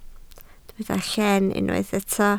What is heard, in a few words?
"lleen", Welsh